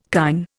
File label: "kine", Indian